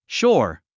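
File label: "shore", English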